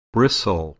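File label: "bristle", English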